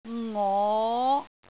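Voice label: "ngo5", Cantonese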